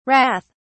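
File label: "rath", British English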